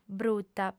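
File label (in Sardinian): "bruta", female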